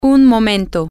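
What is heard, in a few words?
just a minute, Spanish, female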